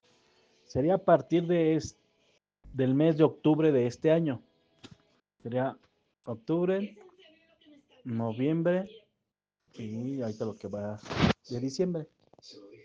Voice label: whatsapp ptt